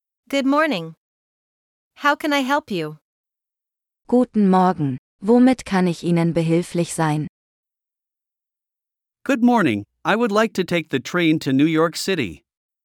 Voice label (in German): Dialog mit Uebersetzungen; Am Bahnhof